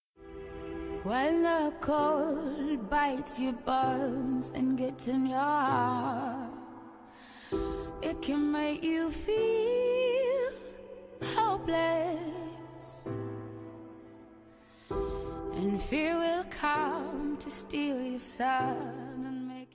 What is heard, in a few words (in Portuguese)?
Bodas de Prata Romantica Masculino, telemensagem